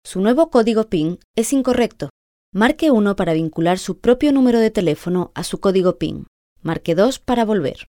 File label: Spanish Castilian